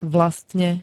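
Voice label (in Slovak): "vlastne", Slovak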